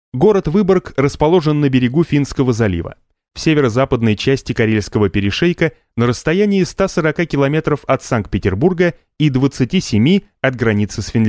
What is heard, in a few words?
Russian Male Tourist Guide